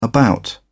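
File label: "about", English, British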